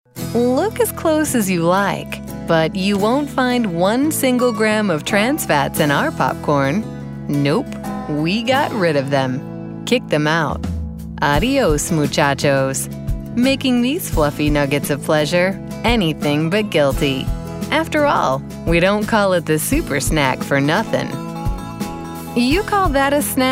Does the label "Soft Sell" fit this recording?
Yes